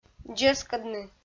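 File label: "дзескӧдны", Komi, female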